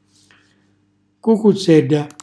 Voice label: "cucuzzella", Calabrese; zucchina